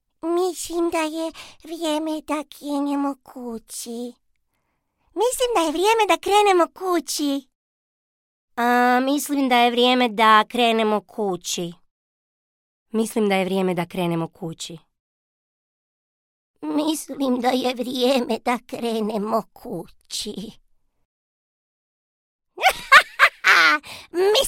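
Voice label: Croatian, Cartoon; GoLocalise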